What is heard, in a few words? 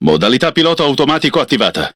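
Italian speech Autopilot Enabled; World Of Warships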